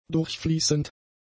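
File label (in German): "durchfließend", German; adjectives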